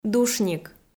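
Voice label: "душник", Komi, female; kpv audio dict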